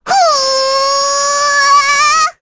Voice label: Rehartna Vox Casting, voices heroes en; kingsraid-audio

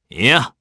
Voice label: Vox Deny jp; kingsraid

